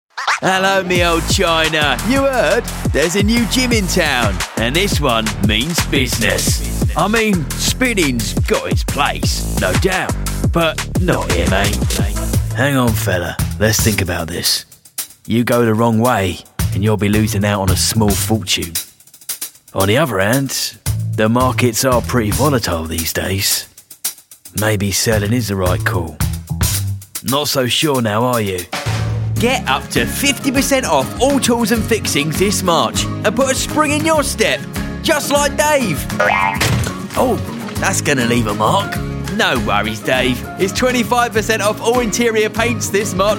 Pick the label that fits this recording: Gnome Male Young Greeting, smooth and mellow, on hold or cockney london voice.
cockney london voice